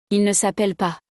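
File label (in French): "il ne s appelle pas", French; conjugaison; le verbe s'appeler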